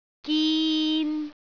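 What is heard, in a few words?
"gin3", Cantonese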